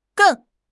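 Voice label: "geng4", Chinese; HSK; HSK2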